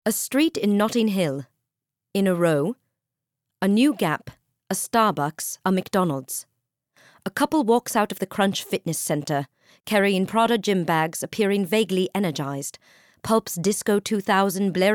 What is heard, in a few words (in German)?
us englisch